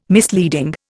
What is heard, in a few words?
"misleading", Indian